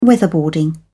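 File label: "weatherboarding", British English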